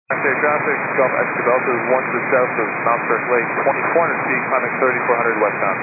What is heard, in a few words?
122 925MHz, scanner radio